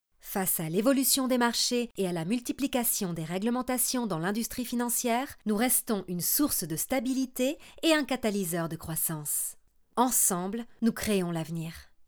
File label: corporate, French, female